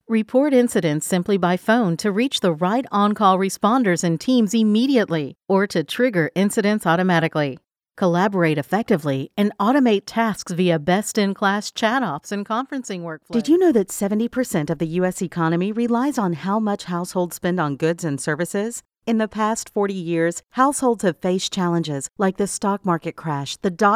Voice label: Corporate Training